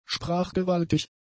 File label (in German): "sprachgewaltig", German; adjectives